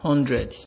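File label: "hundred", Nigerian Pidgin, male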